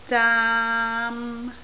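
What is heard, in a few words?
"zaam3", Cantonese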